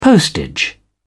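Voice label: "postage", British English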